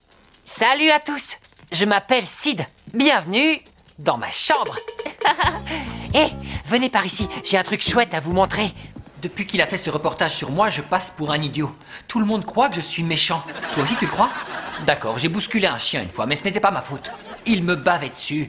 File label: French Character Voice